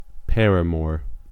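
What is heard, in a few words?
"paramour", US English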